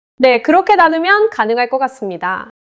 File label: neutral